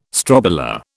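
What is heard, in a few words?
"strobila", British English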